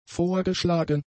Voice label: "vorgeschlagen", German; adjectives